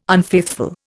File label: "unfaithful", Indian